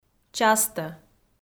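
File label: "частӧ", Komi, female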